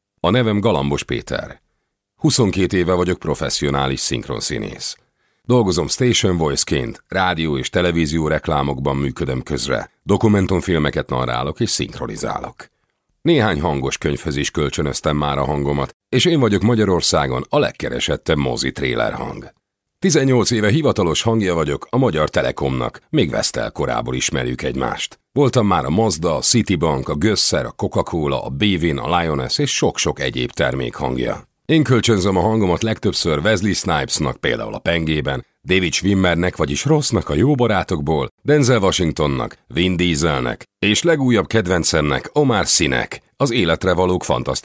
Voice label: hungarian

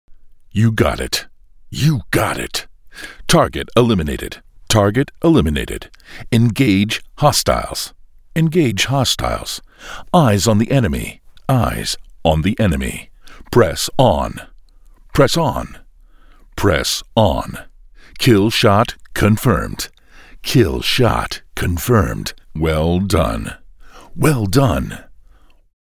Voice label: Game voiceover

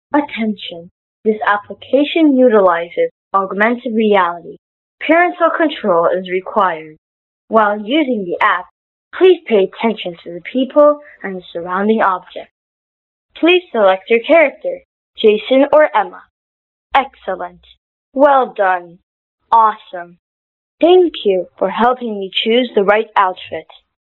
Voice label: boy ENG

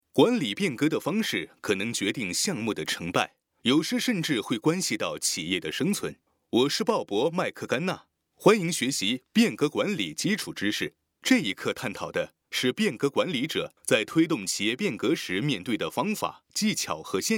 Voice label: Male zh

